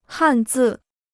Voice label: "汉字", Chinese

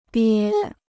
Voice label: "憋", Chinese

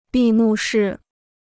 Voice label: "闭幕式", Chinese

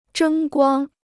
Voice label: "争光", Chinese

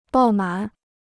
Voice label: "爆满", Chinese

